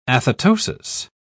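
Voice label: "athetosis", English, uk British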